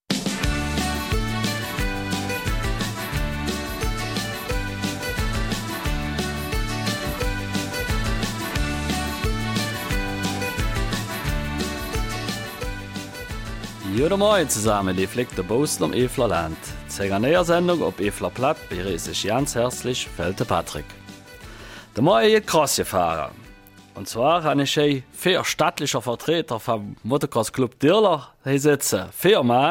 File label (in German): Eifeler Mundart; Motocrossrennen in Dürler unter Flutlicht